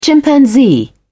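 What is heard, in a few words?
"chimpanzee", English, British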